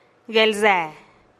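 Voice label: "guelcee", Teotitlán del Valle Zapotec; guelacé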